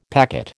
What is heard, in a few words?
"packet", American